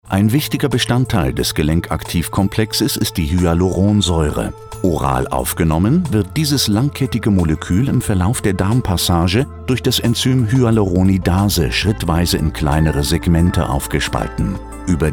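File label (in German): Videopräsentation; ORTHOMOL MEDICAL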